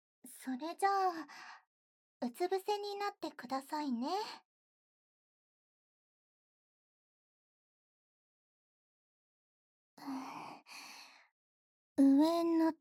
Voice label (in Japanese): マッサージ中にて, ASMR; SEなし